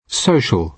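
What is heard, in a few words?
social, British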